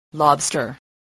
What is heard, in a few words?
"lobster", spelling